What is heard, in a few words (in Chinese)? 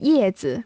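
"叶子" Chinese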